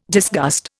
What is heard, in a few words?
"disgust", Indian